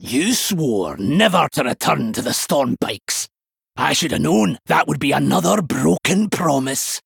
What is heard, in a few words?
Male Dwarf